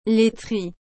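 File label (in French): "laiterie", French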